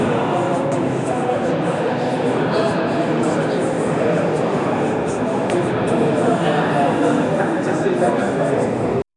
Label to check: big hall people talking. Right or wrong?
Right